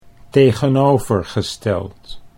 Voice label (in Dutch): "tegenovergesteld", Dutch